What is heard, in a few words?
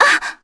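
Vox, Korean; Cassandra; kingsraid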